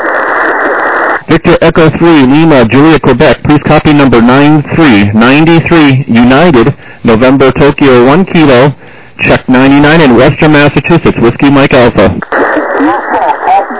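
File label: lower sideband 7MHz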